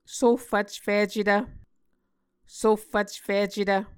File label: "sohfvcficetv", Muscogee; Mvskoke Words with Voicing Consonants; A Dictionary of Creek/Muskogee; SIL International